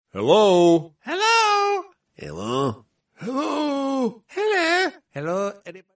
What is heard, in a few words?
hello hello arabic bgm